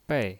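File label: "bei", Mandarin Chinese, fourth tone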